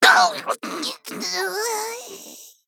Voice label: Male Sprite Death